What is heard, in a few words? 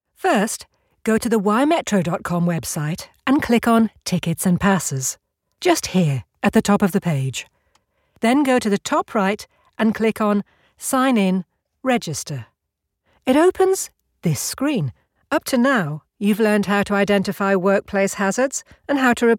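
elearning, Neutral And Geordie Voiceover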